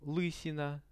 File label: "lysina", Russian; лысина; Это лысина.; visual dictionary